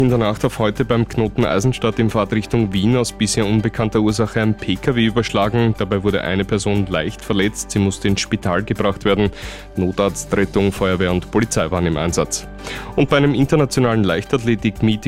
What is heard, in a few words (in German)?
Radio; Radio Burgenland